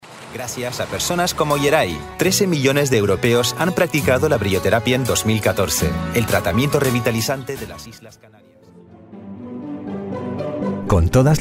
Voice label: Spanish Latin American